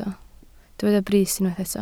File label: "brys", Welsh